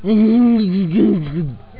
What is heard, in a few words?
gibberish; otis